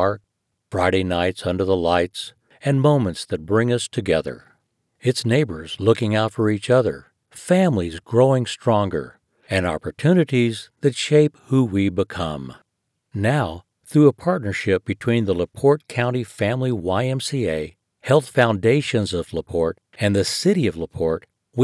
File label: Community Center Promotional